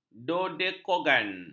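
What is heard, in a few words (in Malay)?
"dodekagon", Malay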